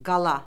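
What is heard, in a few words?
"qala", Azerbaijani; Orfoqrafiya Lüğəti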